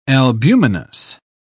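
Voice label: "albuminous", British English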